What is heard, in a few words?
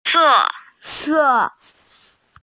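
"se4", Mandarin Chinese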